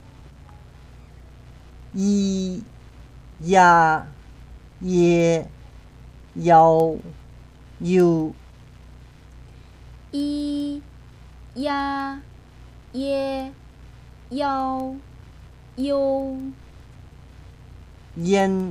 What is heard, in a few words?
pinyin, chinese